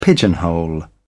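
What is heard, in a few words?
"pigeonhole", British English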